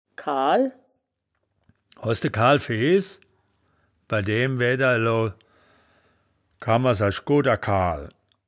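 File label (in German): "kaal", wittlicher woerterbuch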